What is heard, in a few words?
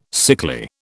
"sickly", British